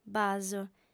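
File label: "baso", lingua sarda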